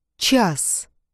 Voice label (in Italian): "Ч" in russo